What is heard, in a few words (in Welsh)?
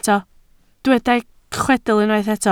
"chwedl", Welsh